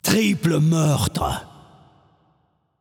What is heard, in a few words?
Announcer French 3 Kills; Spree Classic